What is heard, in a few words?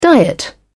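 "diet", British English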